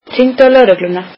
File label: icelandic Call the police